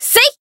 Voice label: Voice attack jp; menesia